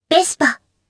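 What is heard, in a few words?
Vox Japanese; Kara; Vespa; kingsraid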